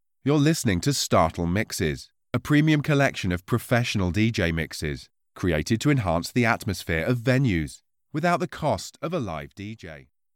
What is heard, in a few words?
startle mixes